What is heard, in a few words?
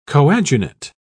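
"coadunate", English, British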